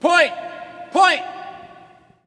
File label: Hockey Male Point; Hockey Vocal Sounds